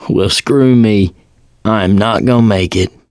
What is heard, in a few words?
going to die, survivor voice mechanic